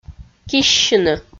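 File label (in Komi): "киссьыны", Komi, female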